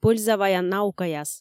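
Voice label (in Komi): пӧльза ваян наукаяс, Komi, female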